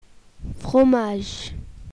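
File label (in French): "fromage", French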